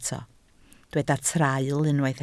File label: "traul", Welsh